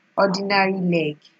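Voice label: "ordinary leg", Nigerian Pidgin, female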